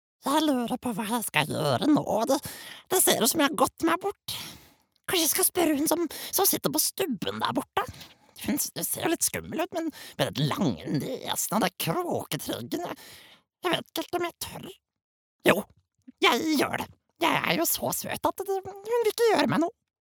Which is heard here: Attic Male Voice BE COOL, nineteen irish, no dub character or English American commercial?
no dub character